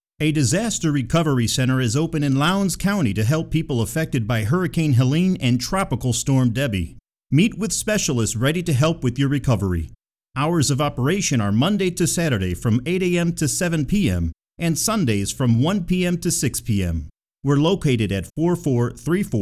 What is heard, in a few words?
radio script; fema; valdosta; drc; Opening